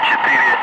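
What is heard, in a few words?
"5", spoken; Ka-50; Wingman; Digits; DCS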